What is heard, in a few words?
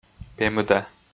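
"пемыда", Komi, male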